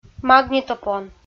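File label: "магнитопон", Komi, female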